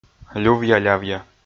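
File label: "лювъя-лявъя", Komi, male; kpv audio dict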